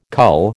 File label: "cull", American